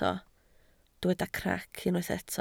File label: "crac", Welsh